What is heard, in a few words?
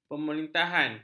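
"pemerintahan", Malay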